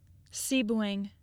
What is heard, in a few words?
"Sebewaing", Michigan